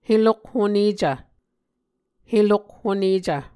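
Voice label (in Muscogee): "helokhonecv", Muscogee word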